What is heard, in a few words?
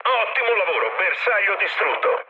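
Italian bomb success mood med, speech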